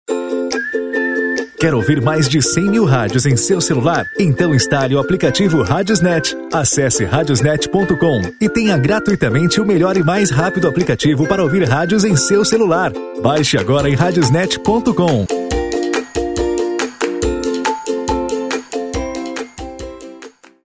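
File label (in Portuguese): Mais de 100 mil radios, masculino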